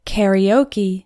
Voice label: "karaoke", English; karaoke (Japanese)

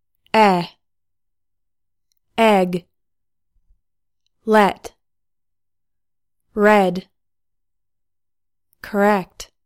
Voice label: english vowels

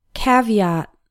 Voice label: "caveat", English

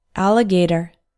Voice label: "alligator", English

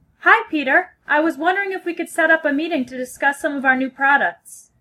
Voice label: phone formal

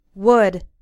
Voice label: "wood", English

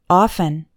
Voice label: "often", English; hard words

